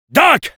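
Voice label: Engineer medic, French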